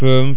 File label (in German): "fuenf", male; falcon